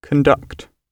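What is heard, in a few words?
"conduct", British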